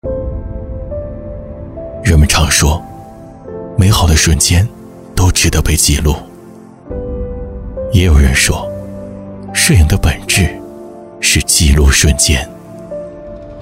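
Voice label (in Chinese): 广告自述感; 乐果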